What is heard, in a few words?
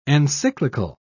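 "encyclical", British English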